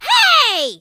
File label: hurt